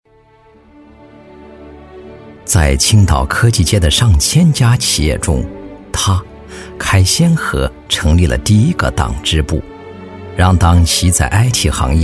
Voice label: 男 党建专题; 历史感强; 高端大气

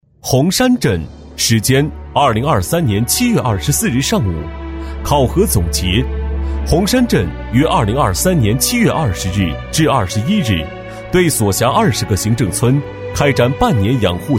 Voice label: 男 党建专题 大气稳重; 公路管理养护考核总结; 男D2-年轻气质

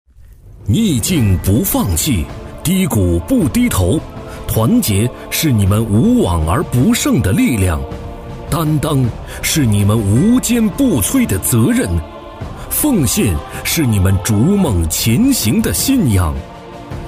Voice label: 男, 大气颁奖, 大气有力; 磁性稳重 大气浑厚

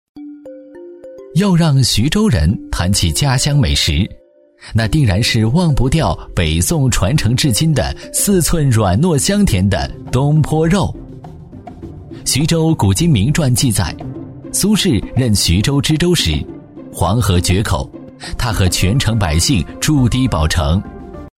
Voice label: 男 纪录片; 记录讲解 质感磁性

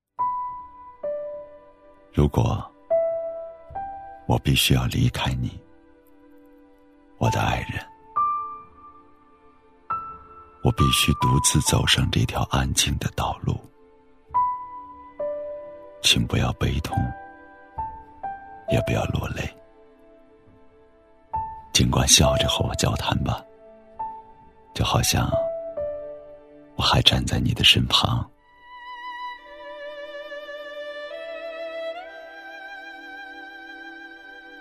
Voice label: 男 诗歌 伤感自然; 给那些我爱的人; 质感磁性

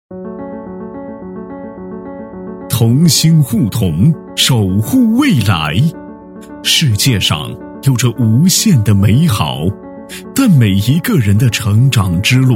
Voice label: 男 专题配音 严肃大气; 未成年人保护工作; 男A1-成熟浑厚

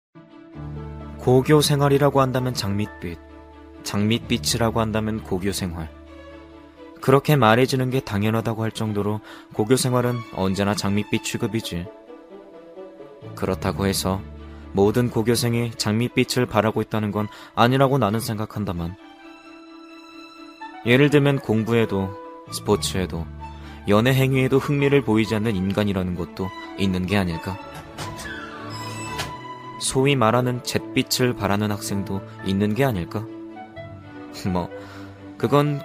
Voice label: 韩语男声

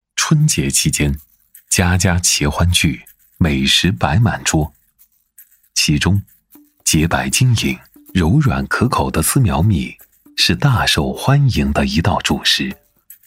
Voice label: 男 记录片 风格美食记录; 丝苗米; 舌尖; 磁性沉稳; 舌尖感

